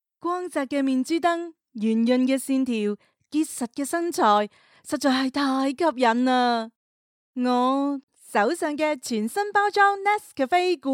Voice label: Cantonese